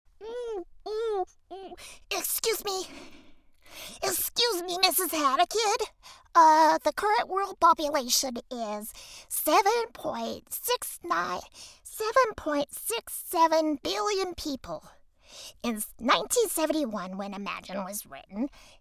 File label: Boy Voice Nerdy; Lil Nerdy Allister Imagine